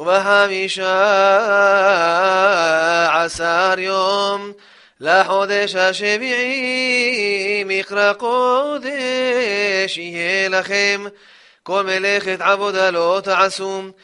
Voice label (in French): maftir de souccot marocain; 1er jour de yom tov